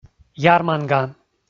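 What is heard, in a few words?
"ярманга", Komi, male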